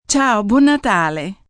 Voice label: "Ciao, bon natale", Goodbyes, female, foreign, Italian